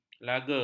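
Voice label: "lager", Malay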